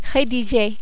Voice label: "rediger", French

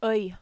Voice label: "oeil", French

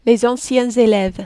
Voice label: "les anciens eleves", French

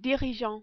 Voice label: "dirigeant", French

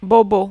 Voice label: "bobo", French

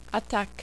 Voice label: "attaque", French

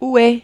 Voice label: "ou est", French

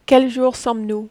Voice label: "quel jour sommes nous", French

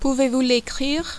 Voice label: "pouvez vous lecrire", French

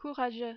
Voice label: "courageux", French

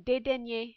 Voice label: "dedaigner", French

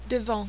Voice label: "devant", French

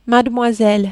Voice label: "mademoiselle", French

